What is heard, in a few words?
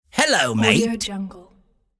male hello mate with accent